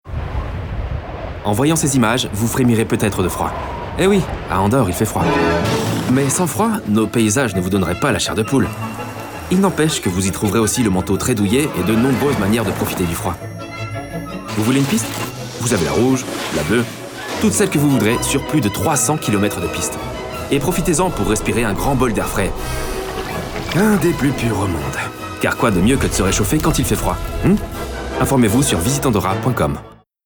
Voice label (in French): corporatif, french, male; corporate